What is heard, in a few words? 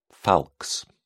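"falx", English, British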